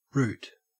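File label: "root", Australian English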